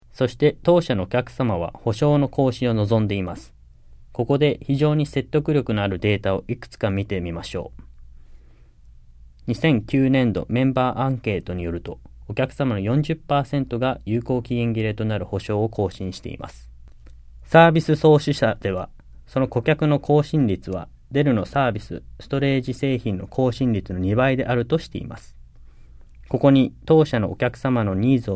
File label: JAPANESE; delltranslations